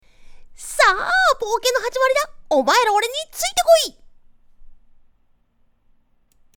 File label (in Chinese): 夸张外放女样音